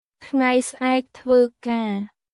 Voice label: Khmer, basic khmer cambodian everyday